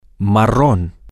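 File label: brown, Spanish, male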